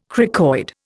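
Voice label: "cricoid", Indian English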